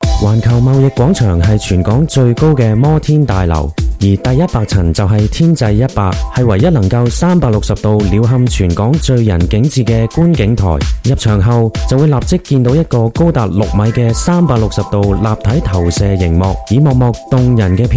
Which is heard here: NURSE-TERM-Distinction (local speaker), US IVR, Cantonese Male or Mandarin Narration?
Cantonese Male